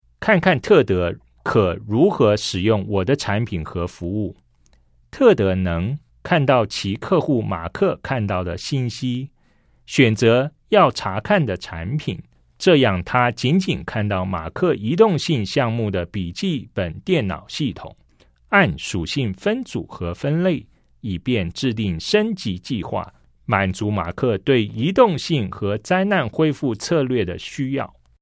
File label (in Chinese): CHINESE